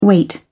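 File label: "wait", British English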